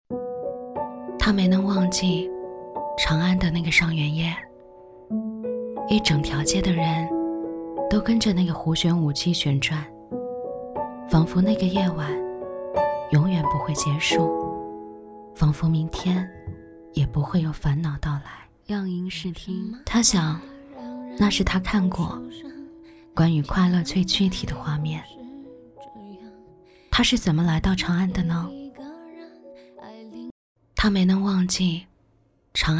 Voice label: 女 游戏 悲伤 游戏皮肤

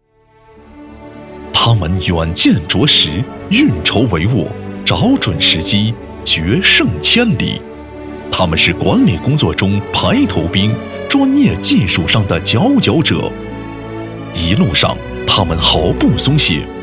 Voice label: 男, 疫情专题; 致敬护士队伍-携手战胜疫情-护士节系列主题-云视频-庆祝活动框架

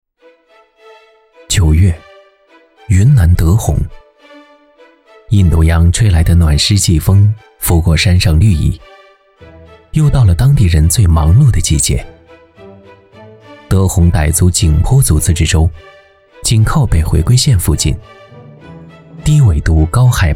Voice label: 男 纪录片; 良品铺子

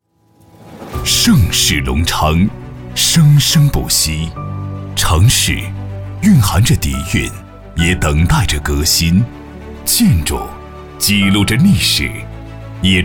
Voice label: 男, 企业宣传 大气恢宏; 龙江一建

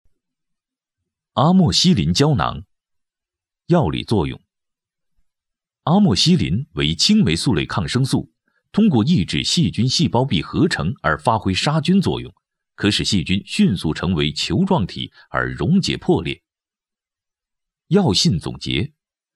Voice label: 男, 解说片; 药物

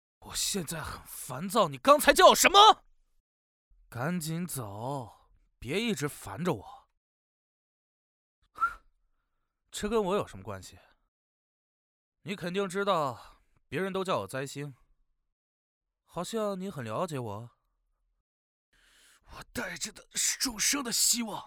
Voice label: 男, 英俊沉稳, 男青年

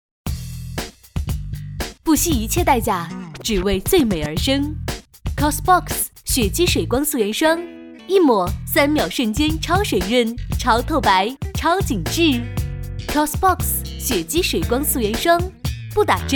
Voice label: 化妆品, 时尚化妆品广告

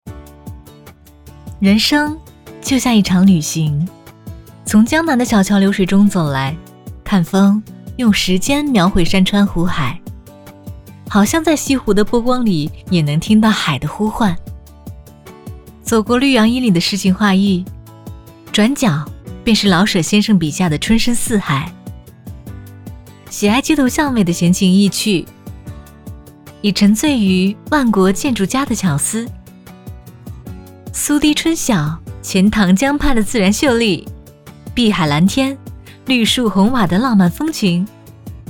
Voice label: 女, 流行的清新, 文艺, 旅行小片

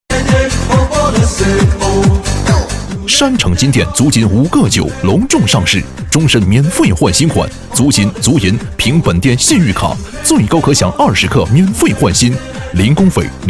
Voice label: 男 促销; 山城金店足金五个九隆重上市

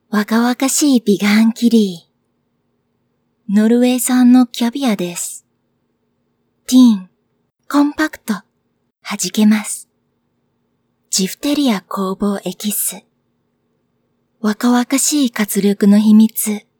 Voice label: 日语女 视频字幕日语配音; 阿拉蕾

